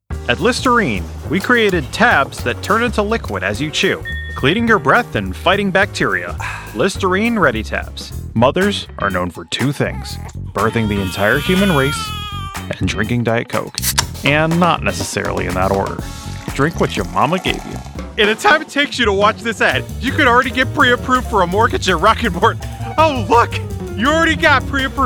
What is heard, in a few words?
commercial